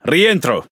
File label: It Speech Pilots Torpedo Land; World Of Warships